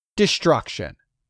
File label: "DESTRUCTION", English; 3000 words